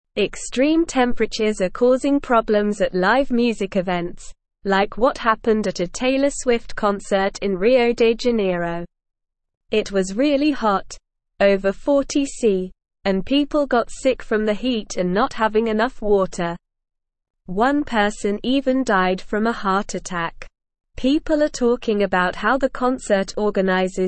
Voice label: English Newsroom Upper Intermediate SLOW Reading; Extreme Heat Threatens Live Music Events Prompts Sa